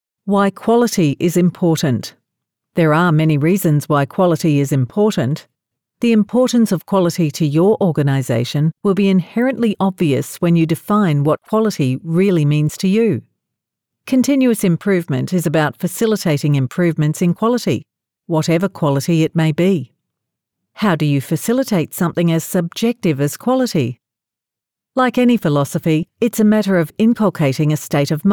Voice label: english australian e-learning